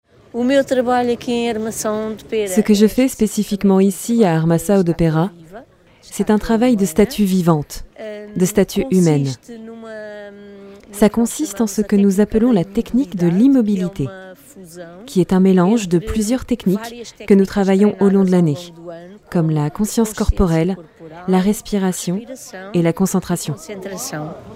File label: voice over